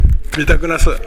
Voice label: "midagunasu", minamiyamagata

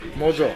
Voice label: "mozo", minamiyamagata

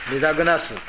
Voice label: "midagunasu", minamiyamagata

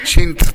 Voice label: "sentu", minamiyamagata

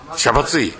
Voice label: "yabatui", minamiyamagata

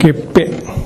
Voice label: "geppe", minamiyamagata

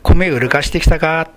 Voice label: "urugasu", minamiyamagata

